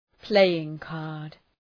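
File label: "playing card", English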